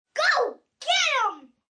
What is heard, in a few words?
Go Get Him, Cheer, 1 boy, 8 yrs, Yelling; VoiceBox